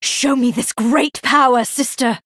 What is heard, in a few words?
Female Night Elf Hero Greetings